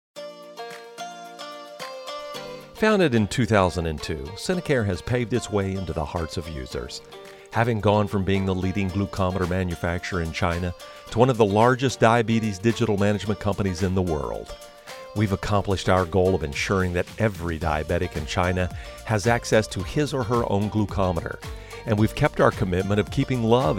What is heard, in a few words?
Corporate; Sinocare